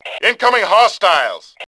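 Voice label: warning enemy, Male; voice; Tribes2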